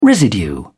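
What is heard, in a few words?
"residue", British English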